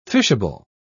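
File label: "fishable", British English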